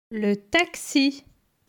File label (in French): "le taxu", francais